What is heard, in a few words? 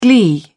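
"glee", British English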